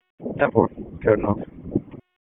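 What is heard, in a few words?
Police Northeast District Dispatch, Raleigh; wake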